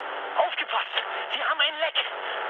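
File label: German damaged water mood high, speech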